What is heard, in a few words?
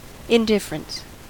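"indifference", US English; pronunciations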